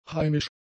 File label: "heimisch", German; adjectives